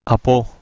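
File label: "apo", Proto-Indo-European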